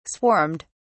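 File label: "swarmed", British English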